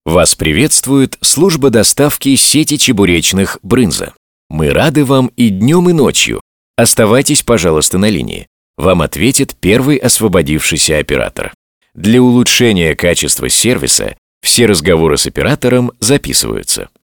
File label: M IVR